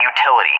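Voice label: "utility", English, USA; DCS; Allied Flight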